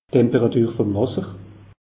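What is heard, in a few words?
"wasser", Alsatian; meteo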